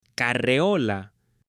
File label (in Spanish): CARREOLA, H